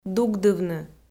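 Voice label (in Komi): "дугдывны", Komi, female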